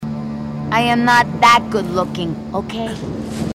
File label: female marine